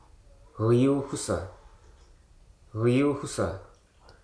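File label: "lake", spoken word; hruso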